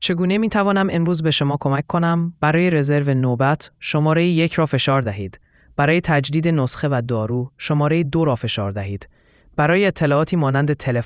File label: question persian